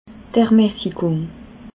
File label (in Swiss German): "ermassigung", alsace